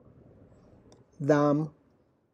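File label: Tlacochahuaya Zapotec; huitlacoche fungus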